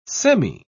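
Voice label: "semi-", English, British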